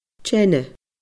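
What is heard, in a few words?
"teine", Scottish Gaelic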